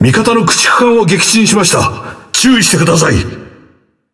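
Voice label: Speech Destroy Ally Destroyer, Japanese; World Of Warships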